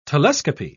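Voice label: "telescopy", British English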